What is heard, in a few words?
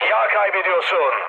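Turkish damaged oil, mood med, speech; War Thunder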